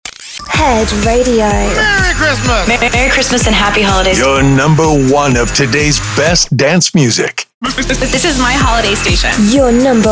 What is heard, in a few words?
Sweeper Xmas Long